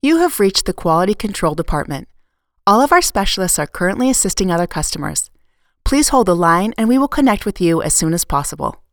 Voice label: IVR